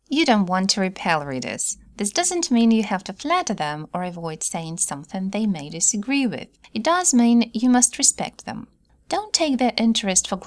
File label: Voice Eng